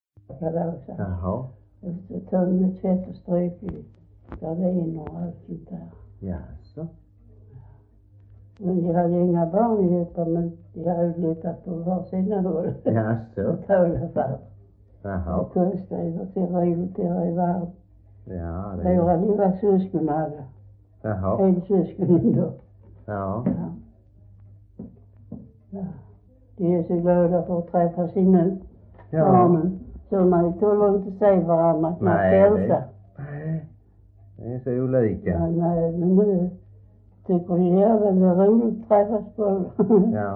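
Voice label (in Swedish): Svedala Skåne, Svenska sagor dialekter; Folkminnen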